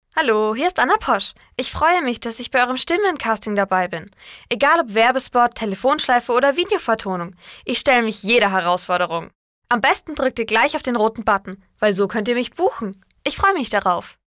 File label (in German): Sprecher Kinder Neutral; Kinderstimmen